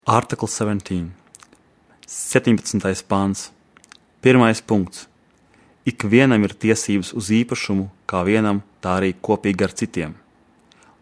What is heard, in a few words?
Latvian